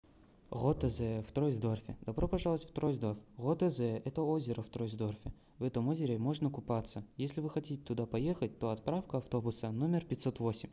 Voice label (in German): Russisch; Rotter See Troisdorf